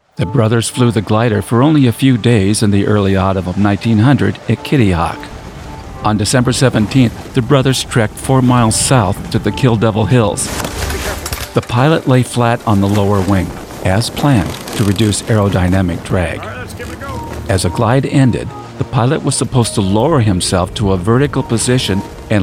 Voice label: Voice Over; Wright Brothers